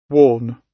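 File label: "warn", British English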